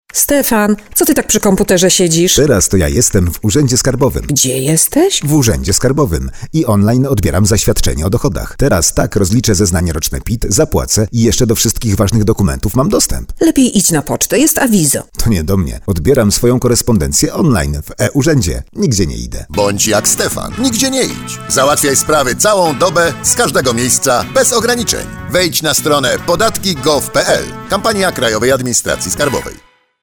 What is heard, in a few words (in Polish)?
Spot radiowy